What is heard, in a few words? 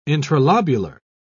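"intralobular", British English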